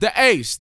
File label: BOAST F; Vocal Planet; R&B Dance